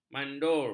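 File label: "mandur", Malay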